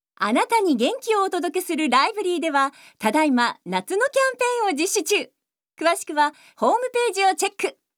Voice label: Narration